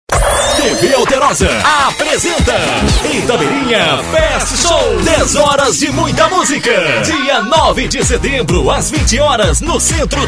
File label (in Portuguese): spot; estudio moura; itabirinha fest show 2011; flank